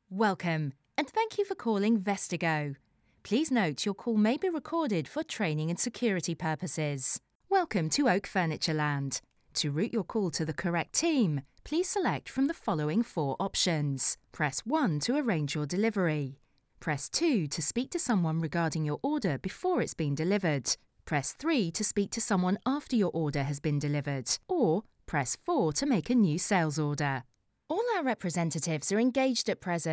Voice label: female